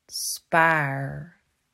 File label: "Spaar", Dutch